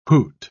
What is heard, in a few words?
"hoot", pronounce